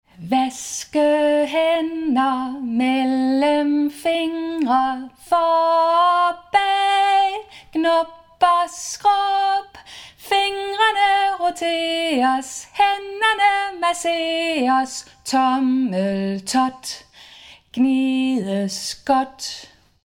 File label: Danish